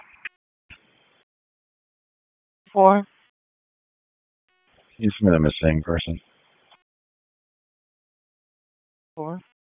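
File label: Raleigh Police Northeast District Dispatch, p25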